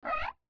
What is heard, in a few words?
"Ka" muffled